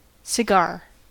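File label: "cigar", English, US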